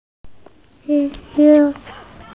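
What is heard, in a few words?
"qi4qiu2", Mandarin Chinese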